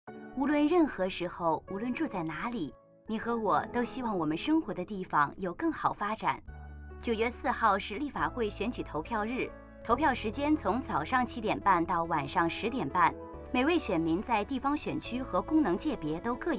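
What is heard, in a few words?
Mandarin radio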